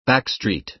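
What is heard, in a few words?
"backstreet", American English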